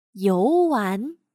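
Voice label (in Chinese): "游玩", Chinese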